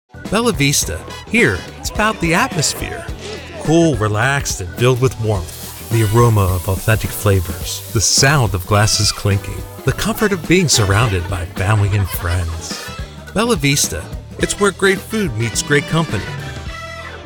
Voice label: Commercial Spot